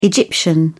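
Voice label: "egyptian", British English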